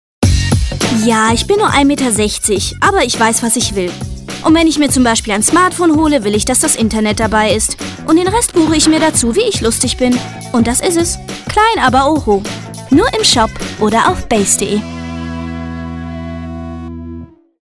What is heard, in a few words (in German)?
Werbung; Eplus